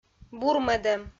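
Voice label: "бурмӧдӧм", Komi-Zyrian, female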